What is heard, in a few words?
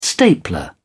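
"stapler", British English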